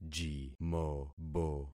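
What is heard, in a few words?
"jimobo", concatenated syllables; Affixing experiment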